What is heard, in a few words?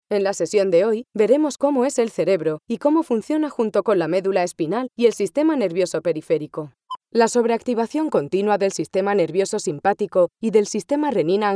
spanish medical narration